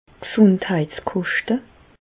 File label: "gesundheitskoschte", Bas Rhin; medecine; coût de santé